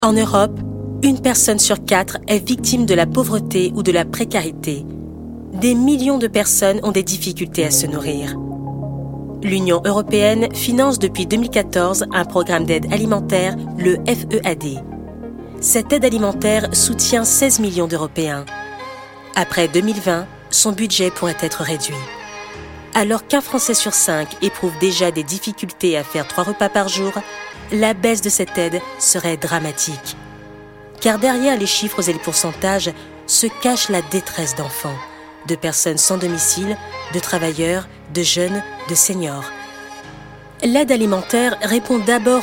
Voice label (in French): voix institutionnelle Ton droit informatif sérieux engagé; FEAD; SECOURS POPULAIRE